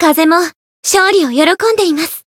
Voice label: win, jp; veina

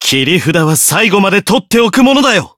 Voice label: variable chant, jp; zeviro

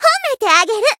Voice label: healed, jp; suzette

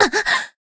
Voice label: damaged, jp; sherinu

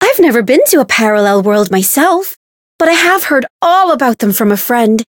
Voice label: story; hisumena

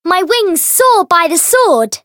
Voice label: variable chant; thillelille

